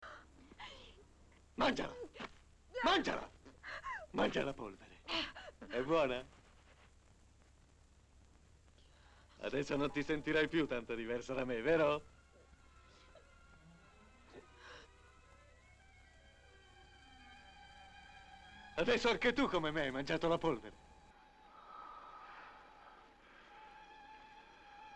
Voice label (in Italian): doppiaggio